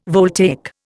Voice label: "voltaic", Indian

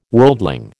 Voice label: "worldling", American

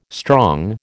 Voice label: "strong", American

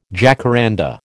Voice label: "jacaranda", American

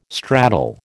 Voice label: "straddle", American

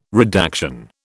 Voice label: "redaction", British

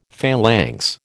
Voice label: "phalanx", American

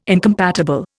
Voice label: "incompatible", Indian

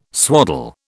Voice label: "swaddle", British

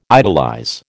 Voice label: "idolize", American

